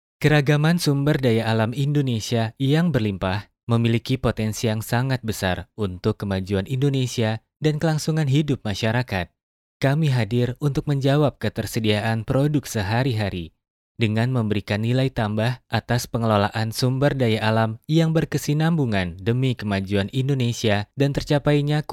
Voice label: male indonesian voice over narrative

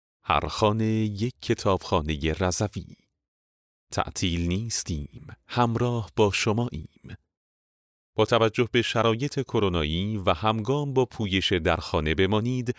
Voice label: male farsi voice over talent narrative

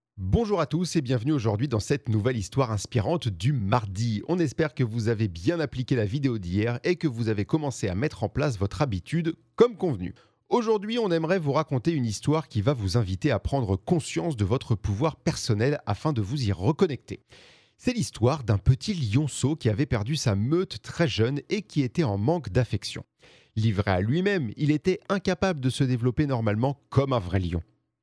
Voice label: male french voice over upbeat, france

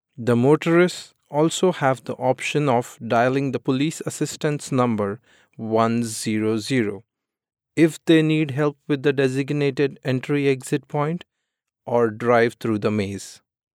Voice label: male indian voice over narrative

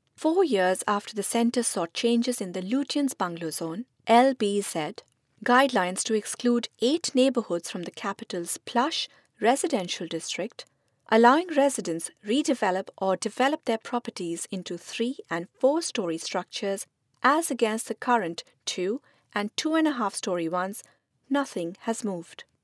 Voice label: female indian voice over narrative

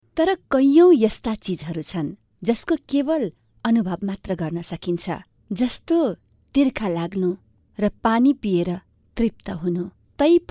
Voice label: female nepali voice over narrative

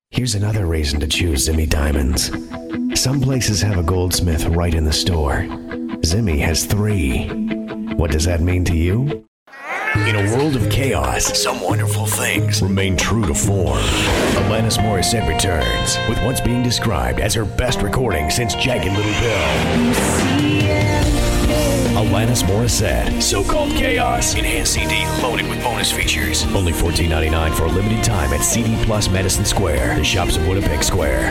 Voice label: male canadian voice over sales

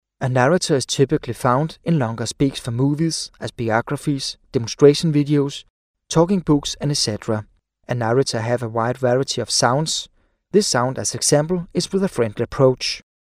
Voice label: male danish voice over talent english

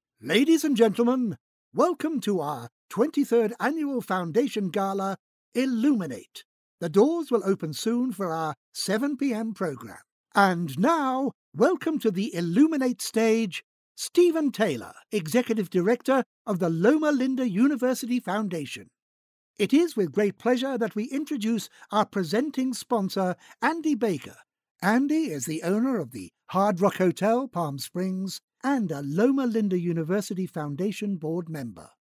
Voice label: male british voice over upbeat, english british

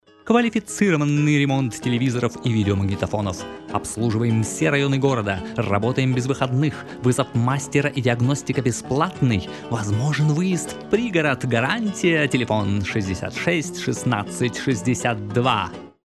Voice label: male russian voice over talent sales

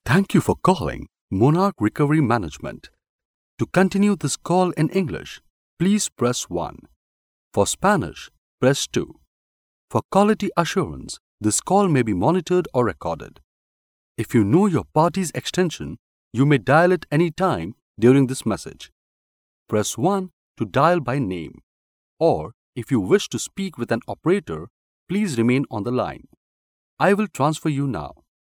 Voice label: male indian voice over talent upbeat

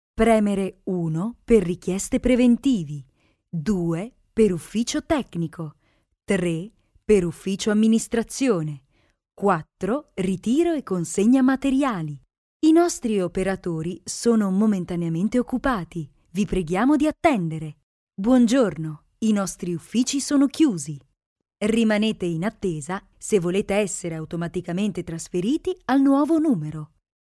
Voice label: female italian voice over narrative

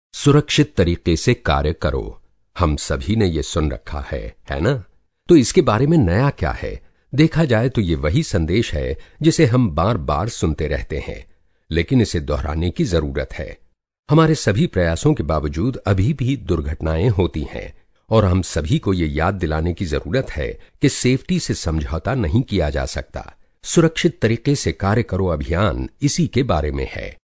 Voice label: male hindi voice over talent narrative

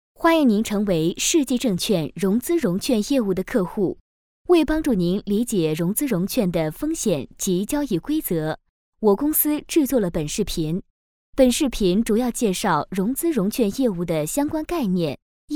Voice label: female chinese voice over narrative, mandarin

